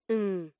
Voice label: "n" phonic; spoken English lanugage

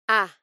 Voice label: "a" phonic; spoken English lanugage

